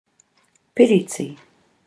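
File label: "piriti", Māori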